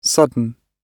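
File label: "sudden", British English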